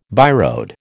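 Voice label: "byroad", American English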